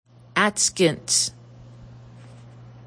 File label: how much, language class dictionary